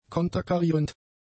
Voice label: "konterkarierend", German; adjectives

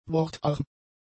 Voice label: "wortarm", German; adjectives

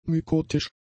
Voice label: "mykotisch", German; adjectives

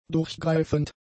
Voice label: "durchgreifend", German; adjectives

